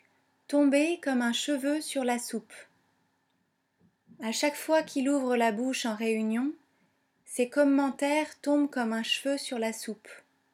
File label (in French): "cheveu", French